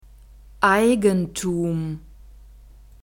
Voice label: "eigentum", German; neuter